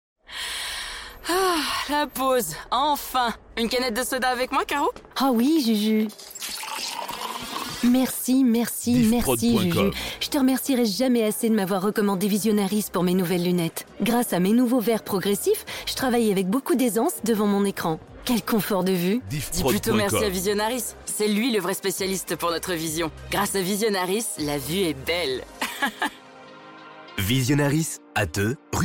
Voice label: Spot; Visionaris